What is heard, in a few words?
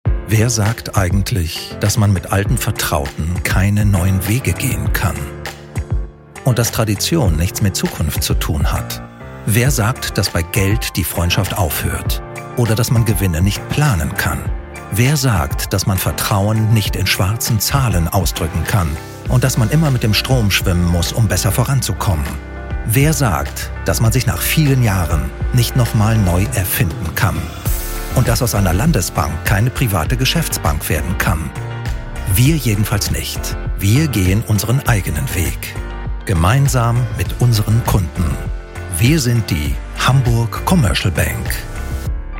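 German, male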